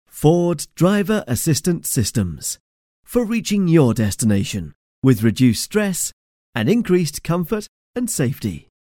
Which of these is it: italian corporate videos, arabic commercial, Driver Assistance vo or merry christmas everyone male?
Driver Assistance vo